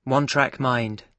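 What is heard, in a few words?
"one track mind", British English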